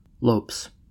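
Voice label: "lopes", US English; pronunciations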